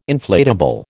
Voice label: "inflatable", American